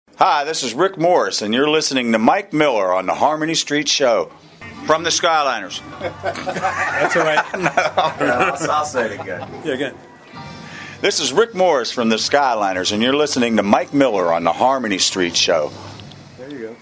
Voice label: promo